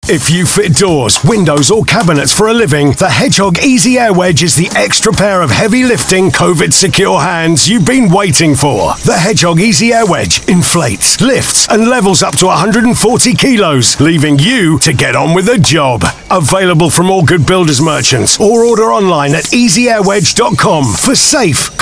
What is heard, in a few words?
Ad; Hedgehog Easy Air Wedge